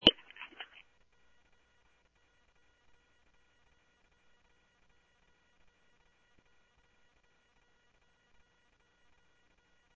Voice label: Tempe Police, scanner audio; SimulcastF-Tempe

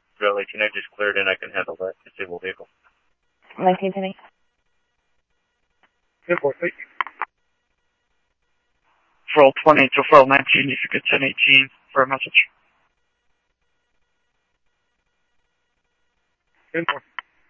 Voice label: Police, scanner; H-Scottsdale; ScottsdalePolice

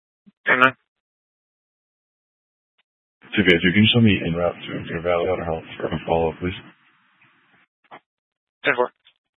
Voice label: Scottsdale Police, Simulcast

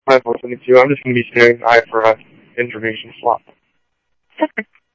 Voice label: Tempe Police, scanner; SimulcastF-Tempe

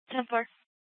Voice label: Central Dispatch, Mesa PD

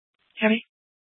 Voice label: Superstition Dispatch, Mesa PD

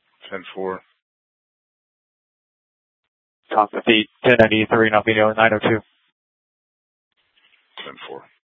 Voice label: Police South Dispatch; Regional Wrlss Cooperative (RWC) - Smlcst H- Scttsdle

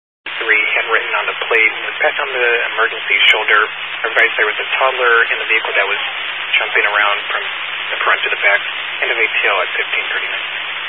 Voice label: Highway Patrol, Phoenix Metro West Area, scanner

